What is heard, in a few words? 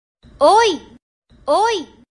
Female Voice Notification Message Tone